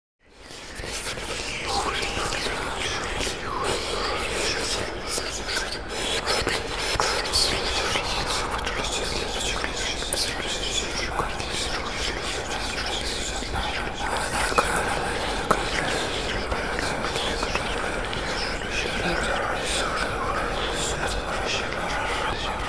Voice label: Horror Ghosts Whispering Sound